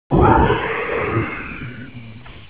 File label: zombie brains